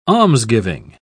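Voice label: "almsgiving", British English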